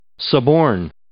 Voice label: "suborn", English